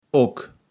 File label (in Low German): "ock", kaevels platt